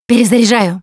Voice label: Reloading Quiet, russian; Producer

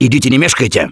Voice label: emphatic go quiet, russian; Gambler

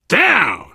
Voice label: kill confirmation, biker, survivor voice; Left 4 Dead 2

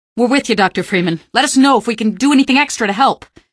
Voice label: squad train, female; npc; Garry's Mod; hl2

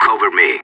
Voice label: cover me, separatist; player vo

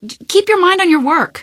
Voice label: answer, female; Garry's Mod; hl2

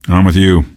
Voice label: answer, male; Garry's Mod; hl2

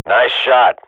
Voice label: radio bot nice shot, Counter-Strike swat; Global Offensive; player vo

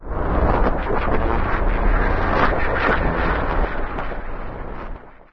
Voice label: strange talk; Garry's Mod; hl2; citadel

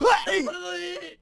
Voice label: Asian Ship Vox; Warlords; Units

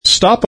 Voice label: "STOP", taunt

